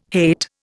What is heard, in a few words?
"hate", Indian